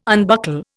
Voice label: "unbuckle", Indian